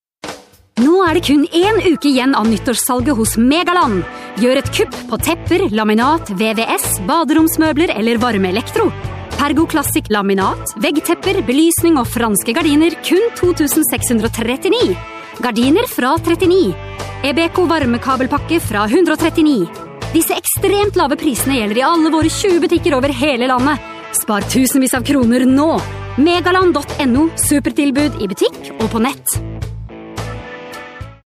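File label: norwegian female commercial, voice demos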